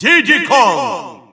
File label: Russian Announcer; Diddy Kong; SSBU